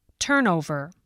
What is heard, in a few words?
"turnover", voice